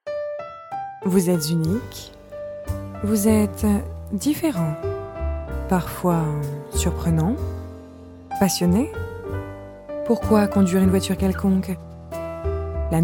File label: French, Female, 20s-30s, voiceover; European